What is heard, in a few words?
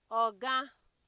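"oga", Nigerian Pidgin, female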